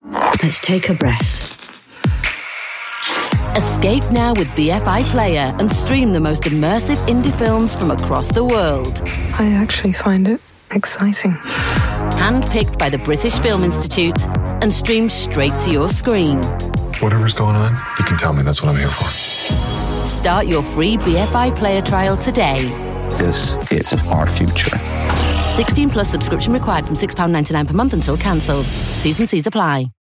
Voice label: radio ad; bfi player